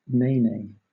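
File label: "nene", English, British accent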